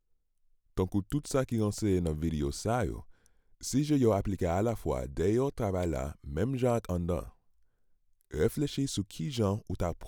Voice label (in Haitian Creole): Haitian Creole eLearning